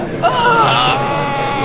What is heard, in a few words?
distress, babble, sad